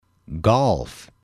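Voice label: "golf", English, male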